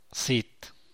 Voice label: "sit", San Mateo Macuilxochitl Zapotec; far; lejos

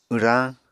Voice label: "wrañ", Apatani

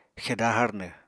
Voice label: "xeda harnw", Apatani

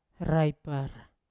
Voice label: "raipar" to throw, Yawa

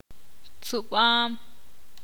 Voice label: ixil; escupir

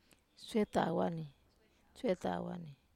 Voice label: "setawa", Wamesa

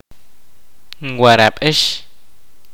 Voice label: kaqchikel

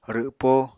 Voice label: "rwpo", Apatani

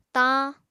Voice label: "tañ", apatani

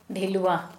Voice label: Kera Mundari F hammock; Kera Mundari Talking Dictionary; ciʔaki

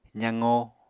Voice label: "zaqo", Apatani

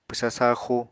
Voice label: "pwsa saaxu", Apatani

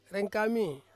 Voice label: "rengkami", Ansus; eyes

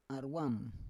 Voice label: "arwam", Roon

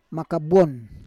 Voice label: "maka buon", Roon

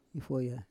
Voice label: "ifoya", Roon

